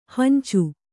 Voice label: "ಹಂಚು", kannada